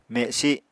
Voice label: "mesi", Apatani

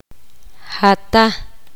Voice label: poqomam; vosotros ustedes

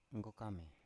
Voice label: "nggokame", Biak

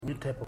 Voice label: "thirty", Ipili

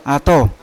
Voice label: "ato" arrow, Yawa

